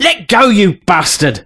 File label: Stop, male; svencoop